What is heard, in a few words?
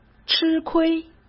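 "吃亏", Mandarin Chinese; hsk; chinese-flashcards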